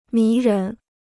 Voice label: "迷人", Chinese

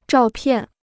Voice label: "照片", Chinese

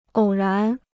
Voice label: "偶然", Chinese

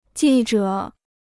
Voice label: "记者", Chinese

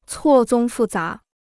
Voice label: "错综复杂", Chinese

